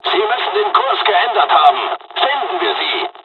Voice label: dialog, dialogs german; War Thunder; midway

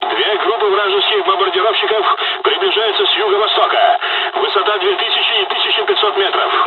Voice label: dialog, dialogs russian; War Thunder

